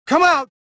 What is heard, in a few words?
suspicious, prisoner